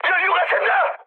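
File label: Korean tank damaged mood high; speech; War Thunder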